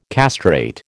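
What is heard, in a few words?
"castrate", American English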